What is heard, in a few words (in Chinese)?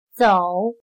"走", chino